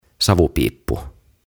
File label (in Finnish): "Savupiippu" "Chimney"; OTAVA KONSERNI